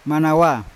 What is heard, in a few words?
"manawa ko", Yawa; fruit; unat